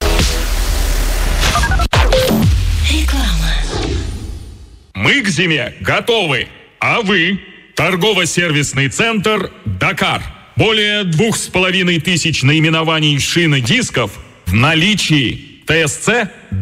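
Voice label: local radio advertising block; Europa Plus Tula 104.9 FM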